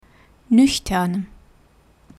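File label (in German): "nüchtern", German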